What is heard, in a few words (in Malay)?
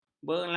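"belanga", Malay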